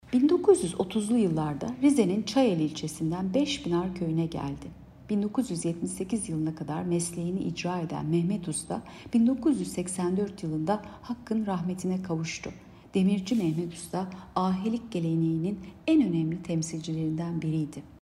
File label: turkce